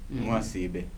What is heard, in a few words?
"good day", Kibembe